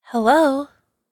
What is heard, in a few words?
hello interrogative, voice ringtones